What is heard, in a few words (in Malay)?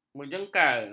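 "menjengkal", Malay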